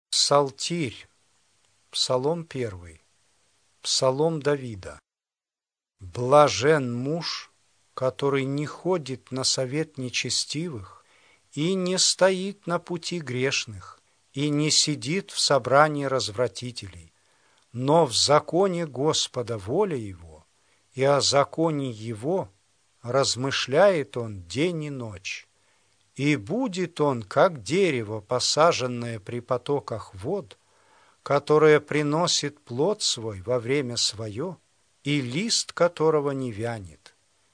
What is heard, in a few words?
Russian Psalm